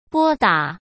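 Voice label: "拨打", Mandarin Chinese; hsk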